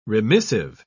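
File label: "remissive", British English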